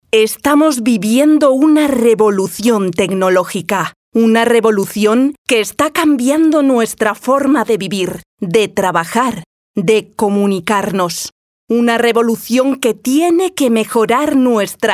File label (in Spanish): TONO CORPORATIVO